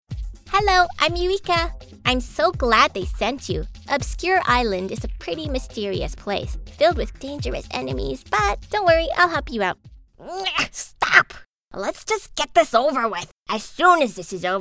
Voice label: Character Samples